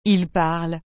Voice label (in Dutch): "il parlent", frans; parler